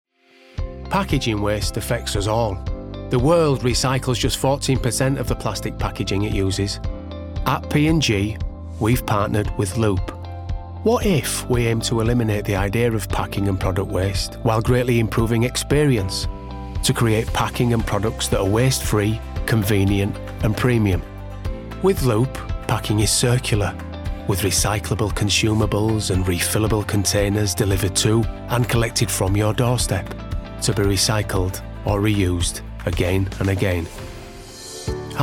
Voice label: corporate; 30s-50s. Male. Yorkshire. Studio.

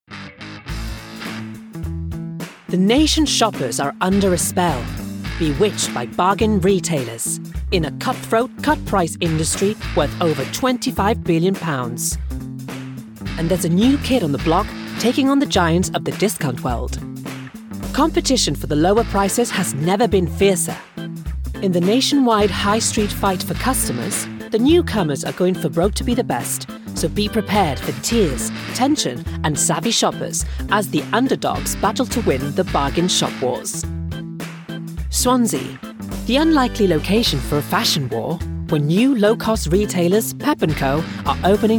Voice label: Documentary

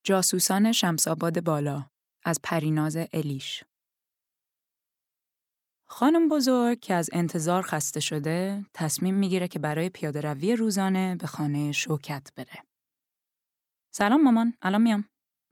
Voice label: Farsi

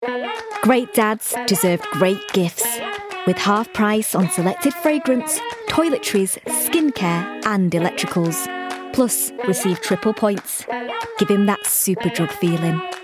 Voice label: Commercial

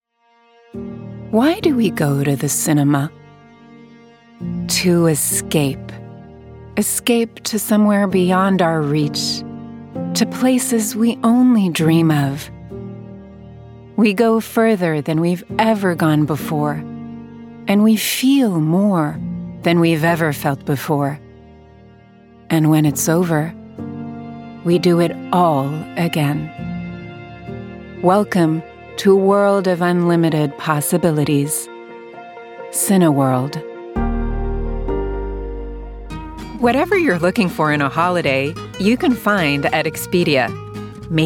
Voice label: us accent

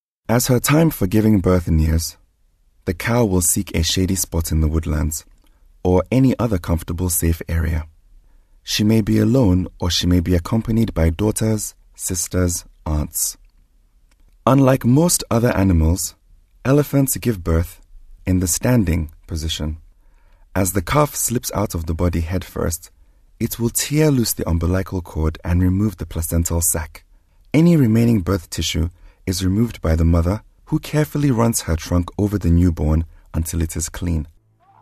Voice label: African

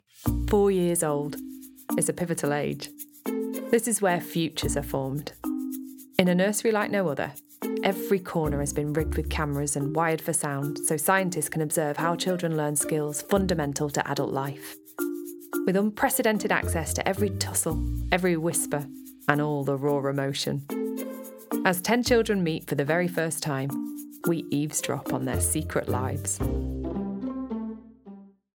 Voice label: Narrative Doc

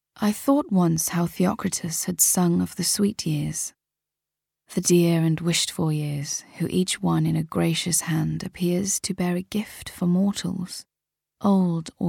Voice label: Audiobook; 20s-30s; Female; Welsh

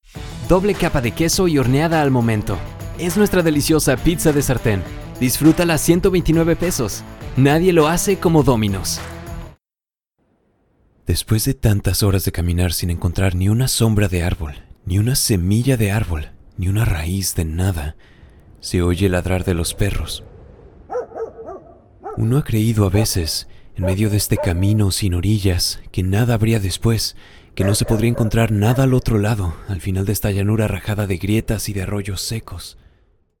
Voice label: Spanish

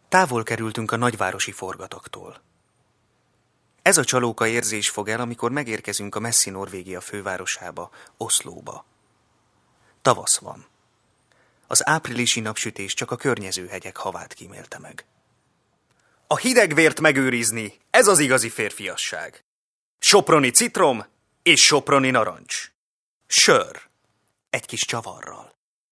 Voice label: Hungarian, Male, 20s-30s; Eastern European

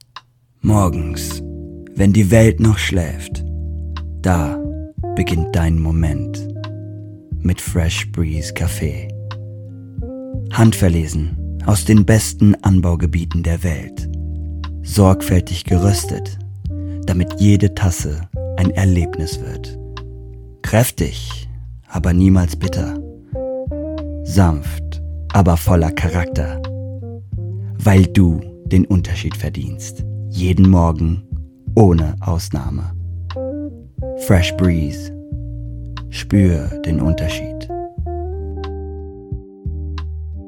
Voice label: German

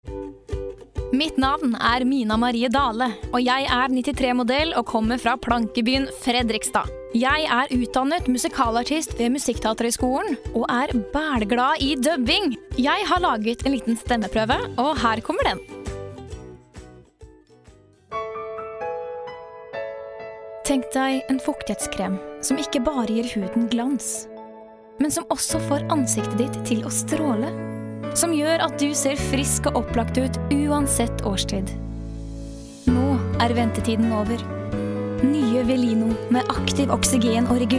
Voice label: Norwegian

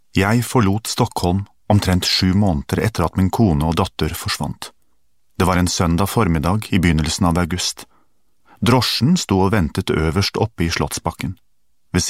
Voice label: Norwegian

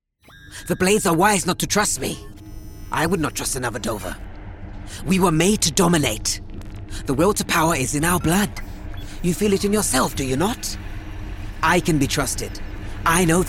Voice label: video game reel; Teens-20s; Young, smart, fun and versatile; Good RP

